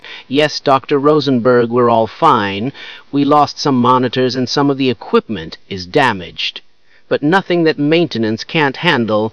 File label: scientist damaged